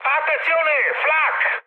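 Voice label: Italian damaged aa mood high, speech; War Thunder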